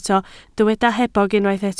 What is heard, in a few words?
"hebog", Welsh